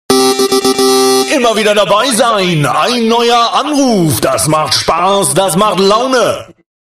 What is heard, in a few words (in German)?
Kirmesansager